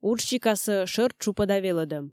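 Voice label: "уджсикасӧ шӧр тшупӧда велӧдӧм" Komi, female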